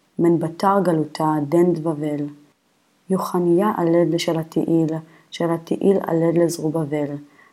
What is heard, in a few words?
Matthew Reading Practice, Aramaic